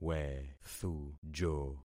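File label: "wethujo", concatenated syllables; Affixing experiment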